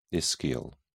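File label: "ischial", British English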